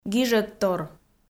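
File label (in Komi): "гижӧдтор", Komi, female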